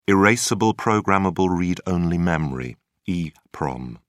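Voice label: "erasable programmable read-only memory", British English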